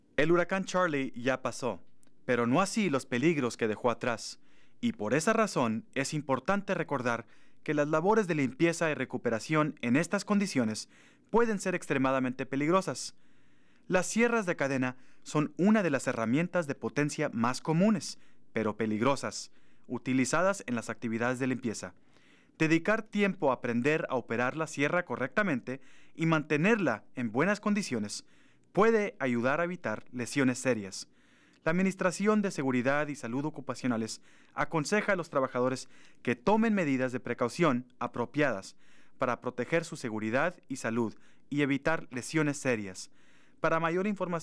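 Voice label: chainsaws, Spanish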